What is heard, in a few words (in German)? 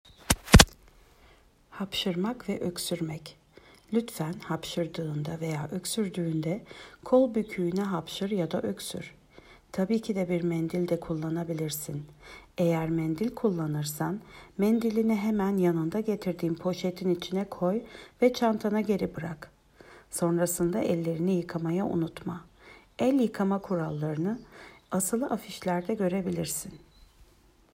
Türkisch